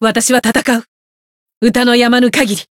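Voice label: chant, Japanese; clarte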